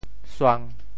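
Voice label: "suang1", Teochew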